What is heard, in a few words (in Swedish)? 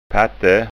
"patte", skanska ord